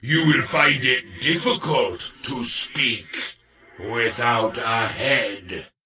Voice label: Death Knight Male Pissed

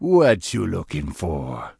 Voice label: Troll Male Standard NPC Vendor

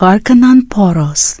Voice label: Draenei Female Military Greeting

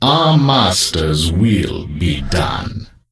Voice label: NPC Death Knight Troll Male Greeting Scourge

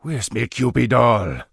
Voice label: Troll Male Standard NPC Pissed

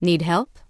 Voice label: Human Female Official NPC Greeting

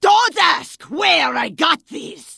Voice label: Vrykul Female Warrior Vendor

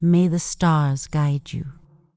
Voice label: Night Elf Female Priestess NPC Farewell

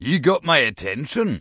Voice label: Dwarf Male Standard Greeting; NPC

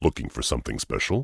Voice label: Tauren Male Warrior Vendor; NPC

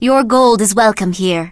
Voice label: Blood Elf Female Standard Vendor

